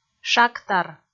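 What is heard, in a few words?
"шактар", Komi, female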